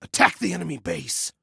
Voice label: Attack Base, Male; Male 01